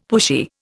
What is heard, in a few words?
"pushy", Indian; Dictionary